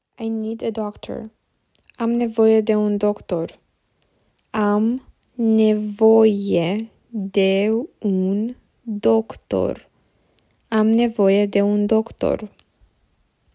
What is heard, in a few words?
I need a doctor, romanian